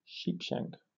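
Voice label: "sheepshank", British English; pronunciations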